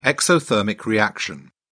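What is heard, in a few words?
"exothermic reaction", British English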